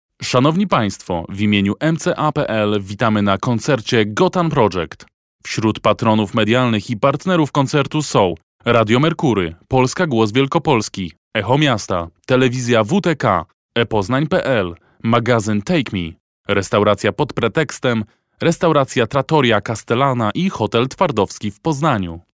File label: male polish voice over talent narrative